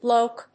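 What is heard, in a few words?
"bloke", English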